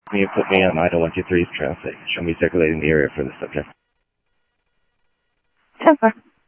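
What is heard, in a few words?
Sheriff East County, scanner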